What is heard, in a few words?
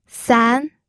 "san2", pinyin